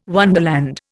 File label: "wonderland", Indian